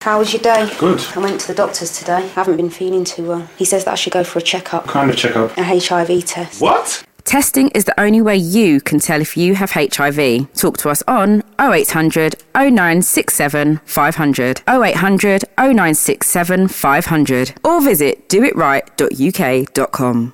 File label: radio spot; nahip talk and test